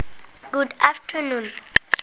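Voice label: "good afternoon", English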